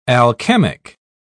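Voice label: "alchemic", British English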